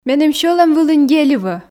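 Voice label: Komi, female; меным сьӧлӧм вылын деливӧ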